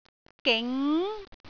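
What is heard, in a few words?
"ging2", Cantonese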